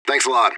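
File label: radio bot response positive; fbihrt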